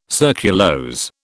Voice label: "surculose", British